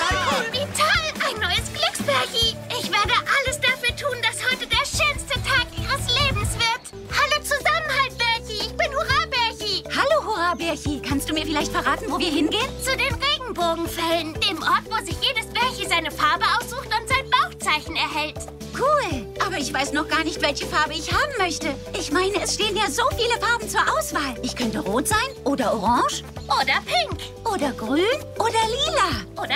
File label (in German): Zeichentrick; Hurrabaerchi